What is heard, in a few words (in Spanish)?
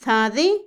contestar, Otomí, mujer; Habla; INALI